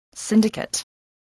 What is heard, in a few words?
"syndicate", English